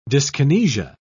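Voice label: "dyskinesia", British English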